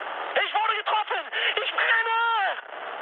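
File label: German on fire mood high, speech; War Thunder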